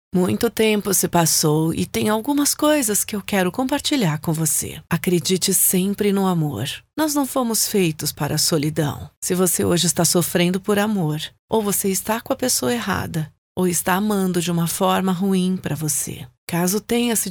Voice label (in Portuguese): voz velha